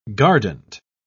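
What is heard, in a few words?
"guardant", British English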